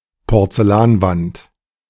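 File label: "Porzellanwand", German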